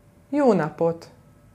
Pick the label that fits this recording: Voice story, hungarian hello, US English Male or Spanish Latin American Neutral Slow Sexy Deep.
hungarian hello